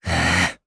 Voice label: Casting, jp; Evan; kingsraid

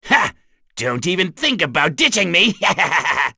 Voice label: vox, en; kingsraid; voices heroes

